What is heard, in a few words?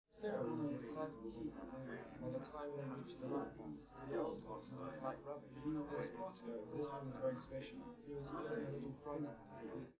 male, live rec; SiSEC11; underdetermined